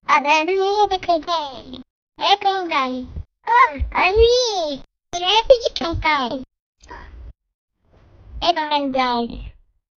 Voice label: a young girl saying maggo; human voice